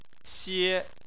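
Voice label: "xie", Chinese, first tone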